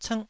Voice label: "cngh1", Taiwanese

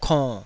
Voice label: "konn3", taiwanese syllables

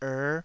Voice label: "err5", taiwanese syllable

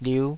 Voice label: "liuh5", taiwanese

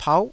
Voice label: "pauh9", taiwanese

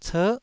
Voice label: "cer9", taiwanese Syllables

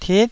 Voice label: "tit9", taiwanese Syllables

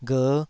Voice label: "gher5", Taiwanese syllable

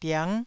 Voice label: "diang9", taiwanese syllable

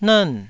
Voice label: "nern4", Taiwanese

